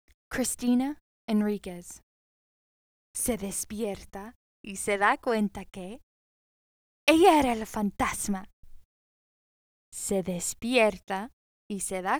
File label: SPANISH